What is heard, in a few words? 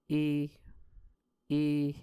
"ee", muscogee